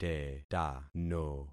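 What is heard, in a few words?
"tetano", concatenated syllables; Affixing experiment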